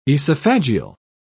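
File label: "esophageal", British English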